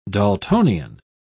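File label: "daltonian", British English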